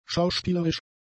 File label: "schauspielerisch", german; adjectives